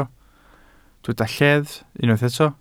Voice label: "lleddf", Welsh

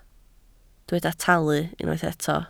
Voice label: "talu" Welsh

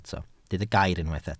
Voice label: "gair", Welsh

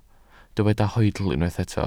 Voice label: "hoedl", Welsh

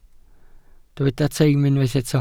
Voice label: "teim" Welsh

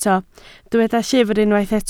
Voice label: "llyfr", Welsh

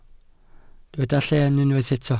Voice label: "lleen" Welsh

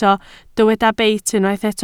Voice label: "beit", Welsh

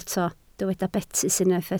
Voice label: "betys", Welsh

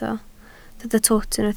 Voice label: "twt", Welsh

